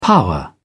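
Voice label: "power", English, British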